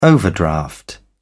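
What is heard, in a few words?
"overdraft", British English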